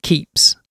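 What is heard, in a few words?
"keeps", British English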